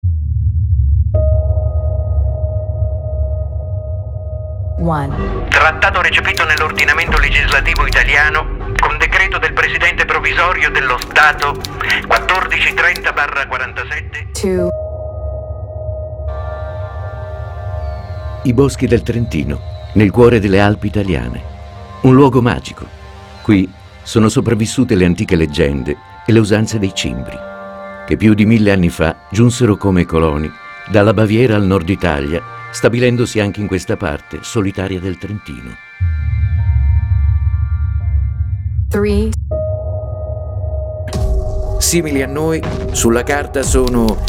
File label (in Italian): demo documentario, voice over; speakeraggio